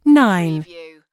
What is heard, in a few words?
US female phone prompt; lynne publishing